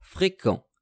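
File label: "frequent", French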